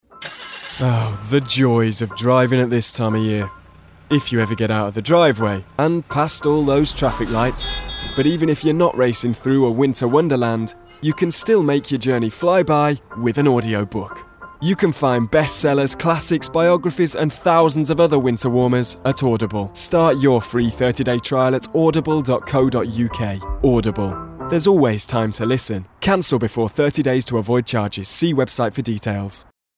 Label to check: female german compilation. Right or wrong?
Wrong